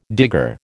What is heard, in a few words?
"digger", American